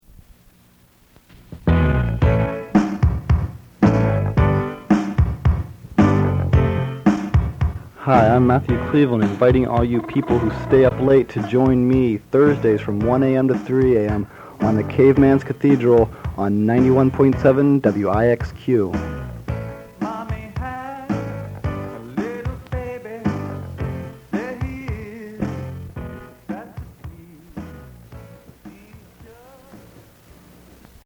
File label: Show Promo; Stay Up Late; Caveman's Cathedral